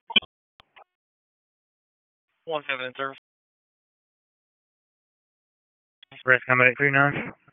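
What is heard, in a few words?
EMS DISP, radio; wake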